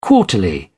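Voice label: "quarterly", British English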